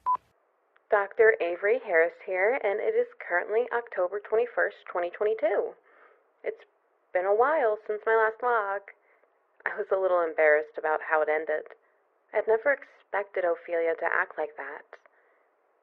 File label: Voice Changer